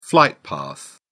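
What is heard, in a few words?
"flight path", British English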